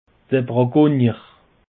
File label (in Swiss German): "brakonnier", alsace; peche